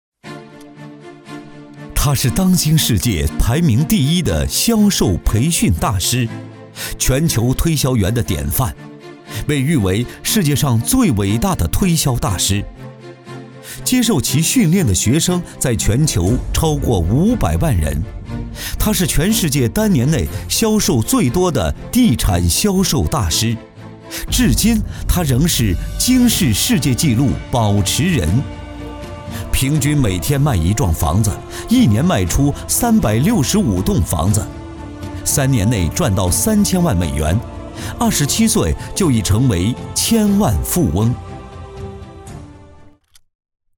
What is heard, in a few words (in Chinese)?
B男 宣传片 大气激情; 销售训练大师